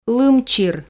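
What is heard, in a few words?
"лым чир", Komi, female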